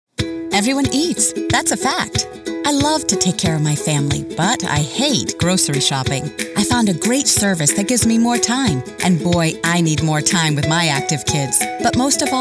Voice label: Corporate video audio

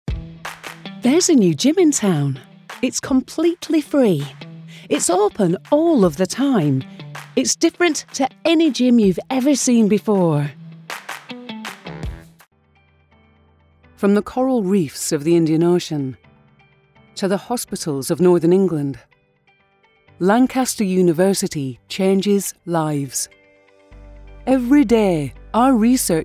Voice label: Corporate Lancs

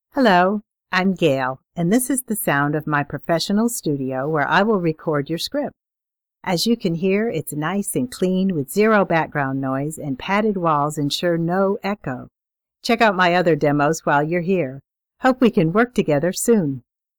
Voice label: Studio Quality

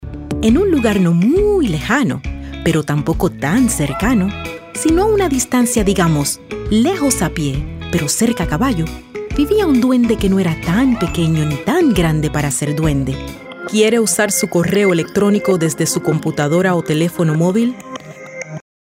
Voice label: Narration sampler

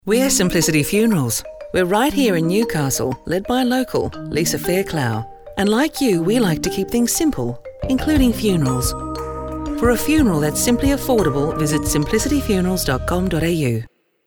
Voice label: Funeral cinema spot

